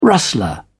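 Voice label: "rustler", British English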